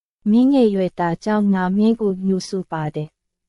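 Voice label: Myanmar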